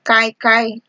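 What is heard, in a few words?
"kaikai", Nigerian Pidgin, female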